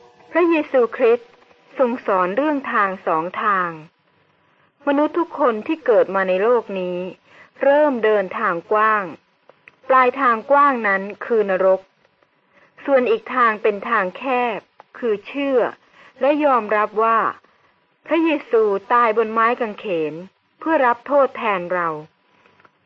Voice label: good news short thai central

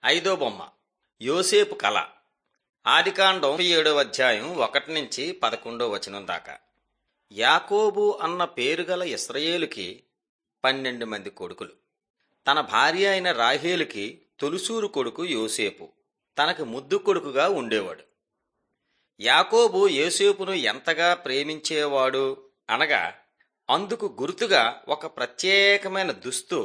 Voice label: telugu bangladesh; mighty men of god

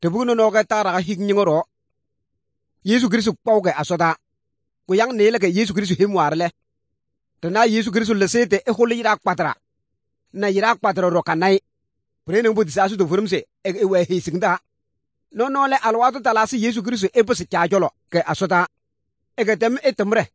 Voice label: good news, kabiye bible

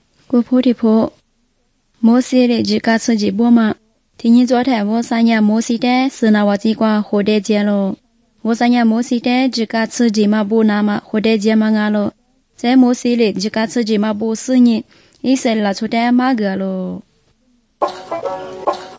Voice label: good news lisu southern, drama; bible

